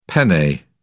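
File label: "penne", English, British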